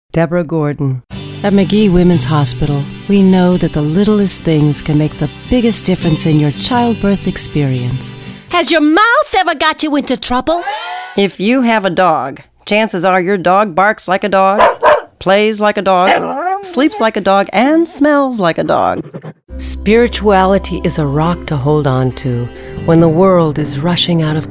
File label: Older Combo VO